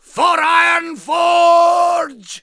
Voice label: Rifleman warcry, en; 矮人火枪手; 魔兽争霸3